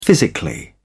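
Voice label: "physically", British English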